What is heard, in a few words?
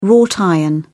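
"wrought iron", English, British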